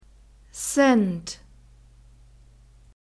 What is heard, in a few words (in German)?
"Cent", German; masculine